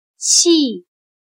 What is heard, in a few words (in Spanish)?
"戏", Chino